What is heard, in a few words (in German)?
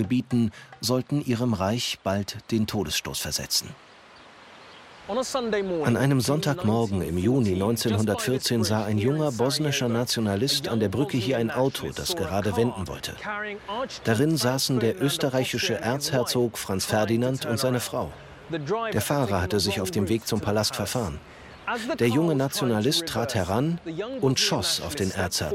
doku osmanen; zdf; Köln; Muttersprache Deutsch